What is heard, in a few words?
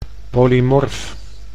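"polymorf", Dutch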